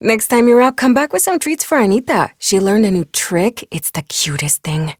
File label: Ambient Barks, en; Warframe; Mall Romance; Lettie